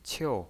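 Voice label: "qiu" fourth tone, pinyin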